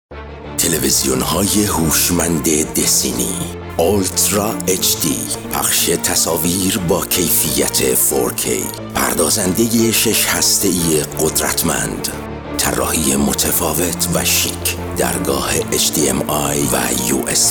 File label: farsi commercial